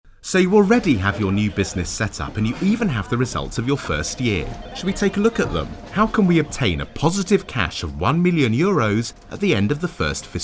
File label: english british e-learning, british voice actors